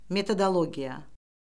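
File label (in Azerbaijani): "metodologiya", Azerbaijani; Orfoqrafiya Lüğəti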